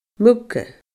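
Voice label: "muga", Scottish Gaelic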